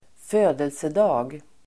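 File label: "födelsedag", Swedish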